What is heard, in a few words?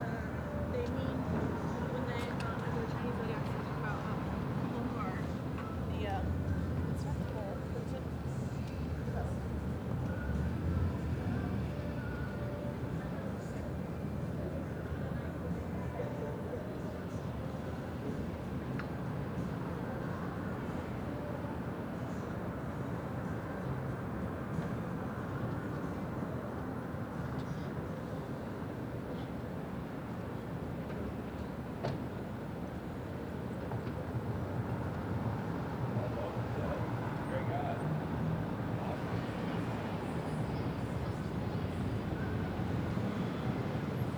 Bus Stop, street mall ad; 29 Street Mall Ad